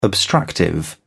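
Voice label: "obstructive", British English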